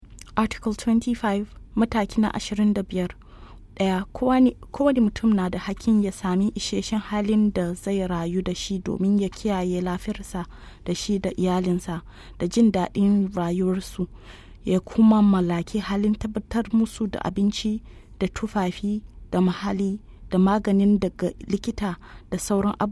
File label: Hausa